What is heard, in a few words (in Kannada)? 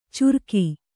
"ಚುರ್ಕಿ", Kannada